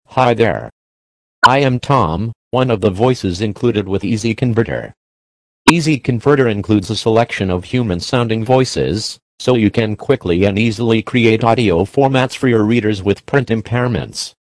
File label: Expressive Tom; easy converter